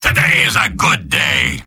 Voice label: soldier cheers; mvm